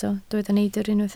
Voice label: "neidr", Welsh